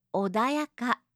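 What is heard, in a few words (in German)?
"odayaka", japanische Mädchennamen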